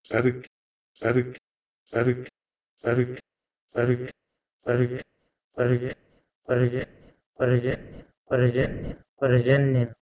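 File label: Proto-Indo-European pergh to Marathi ParZanya; eastern origins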